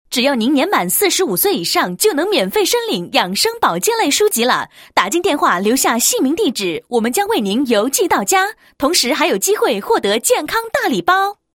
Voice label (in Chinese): 女, 促销; 好消息-保健书籍免费送